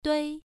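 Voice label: "dui" Mandarin, first tone, pronunciation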